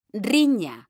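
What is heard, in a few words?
"riña", Spanish, female; mekanta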